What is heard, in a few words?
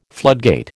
"floodgate", American